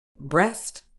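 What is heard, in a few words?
"breast", British English; brest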